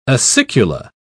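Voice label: "acicula", British English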